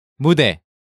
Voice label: "Mudae", Korean

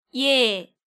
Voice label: "Yae", Korean

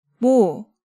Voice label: "Mo", Hangeul sounds; DigitalSoju

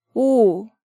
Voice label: "O", Hangeul sounds; DigitalSoju

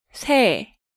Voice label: "Sae", Korean

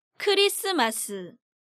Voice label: "Christmas", Korean

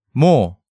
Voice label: "Mo", Hangeul sounds; DigitalSoju

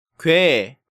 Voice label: "gwae", Korean

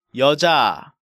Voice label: "Yeoja", Korean

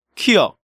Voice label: "kiyeok", Korean

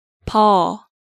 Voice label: "Beo", Korean

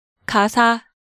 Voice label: "Gasa", Korean

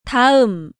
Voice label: "Daeum", Korean

